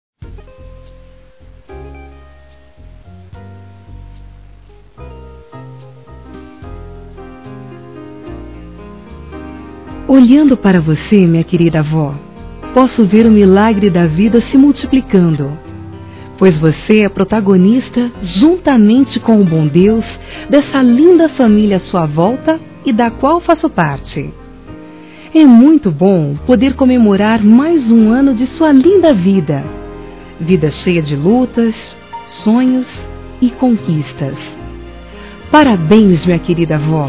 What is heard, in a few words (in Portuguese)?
Aniversário Avó Feminino